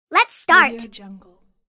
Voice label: cartoon saying lets start

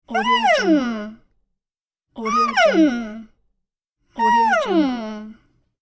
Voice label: interested cartoon game voice

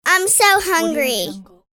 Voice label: child saying im so hungry; applehillstudios

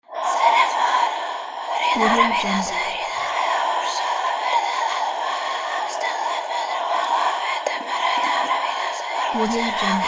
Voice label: whispering a spell female

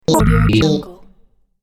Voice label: robotic glitch voice

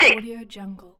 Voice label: female military radio voice six

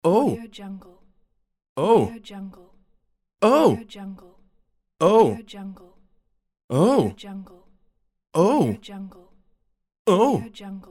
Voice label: male saying "oh"

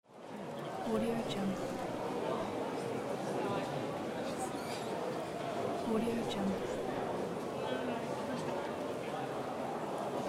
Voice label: people talking

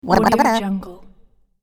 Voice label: tiny voice silly

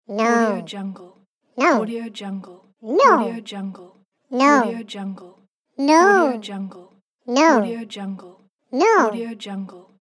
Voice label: cartoon voice "no"

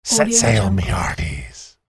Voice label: pirate set sail me hearties

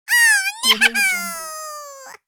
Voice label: cartoon squirrel oh no

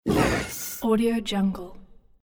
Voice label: ghost saying yes

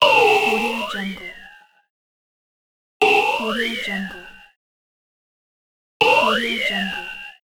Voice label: robot voice oh yeah

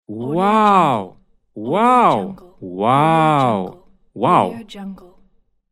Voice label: male voice wow

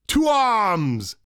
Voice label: vocal witch doctor dialogue to arms male dry

